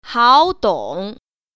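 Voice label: "hao3dong3", Mandarin Chinese Tone Pair Drills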